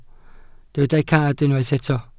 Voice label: "cad", welsh